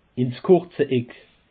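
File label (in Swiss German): "kurzeeck", Bas Rhin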